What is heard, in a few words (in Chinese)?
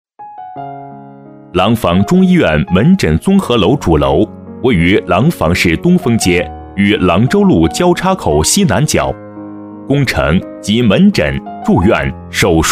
男 项目介绍; 中医院